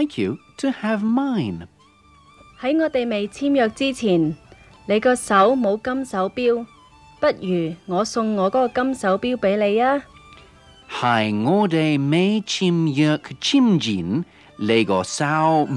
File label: Cantonese Finalising a Deal; doubletake